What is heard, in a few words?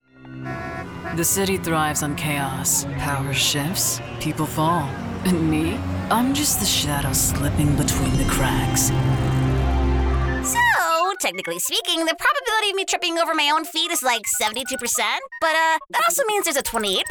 New Character Demo; Vietnamese-American voice actress; Gulf Coast area